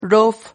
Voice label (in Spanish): "rof", silabas